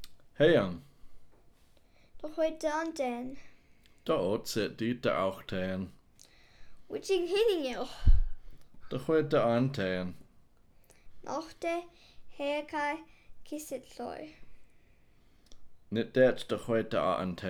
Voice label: conversation, Hupa